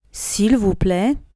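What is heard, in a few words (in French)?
"s'il vous plaît", French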